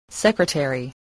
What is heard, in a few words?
"secretary", english spelling